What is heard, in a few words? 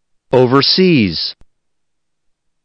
"overseas", listening